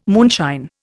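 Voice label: "moonshine", Indian English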